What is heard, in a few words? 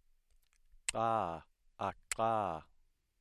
alveolar lateral click